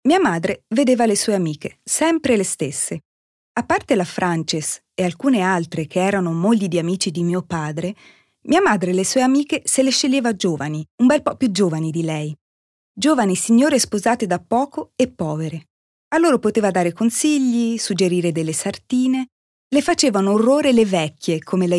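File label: Italian, Schauspiel Neutral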